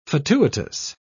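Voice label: "fatuitous", British English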